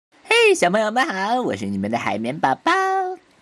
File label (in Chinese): 试音加噪